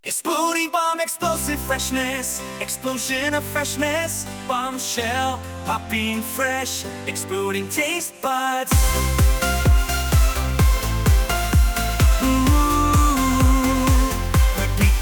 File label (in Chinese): 英文版 男; ai音频